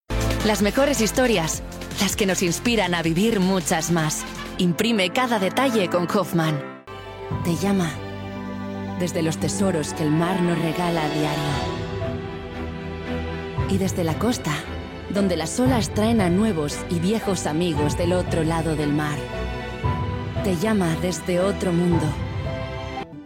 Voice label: Commercial Spanish